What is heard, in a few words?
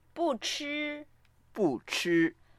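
"bu4chi1", Mandarin Chinese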